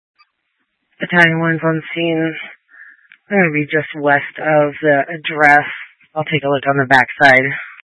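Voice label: Incident Tac 1, Scanner audio recording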